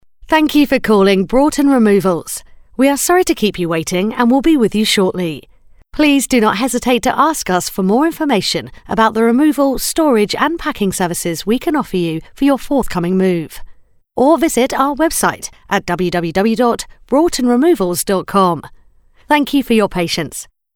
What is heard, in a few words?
Female Girl Next Door